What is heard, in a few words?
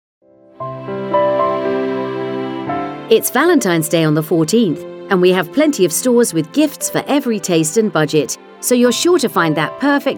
valentines female